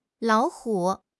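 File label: "lao3 hu3", Mandarin Chinese; HSK; HSK4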